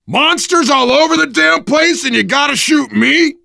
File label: friendly fire, voice, biker; Left 4 Dead 2; survivor